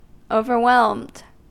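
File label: "overwhelmed", American English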